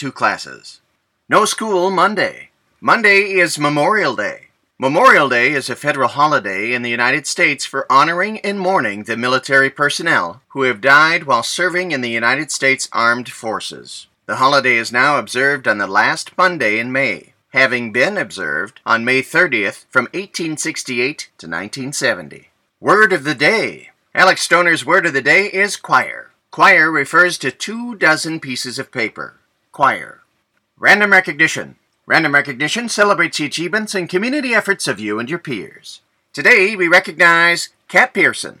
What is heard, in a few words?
Announcements